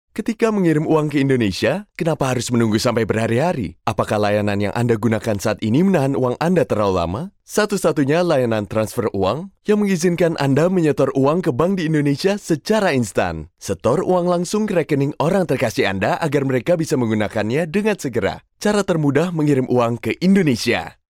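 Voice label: Indonesian Commercial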